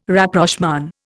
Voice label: "Rapprochement", Indian